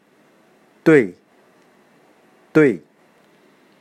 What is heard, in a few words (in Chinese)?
"对", dui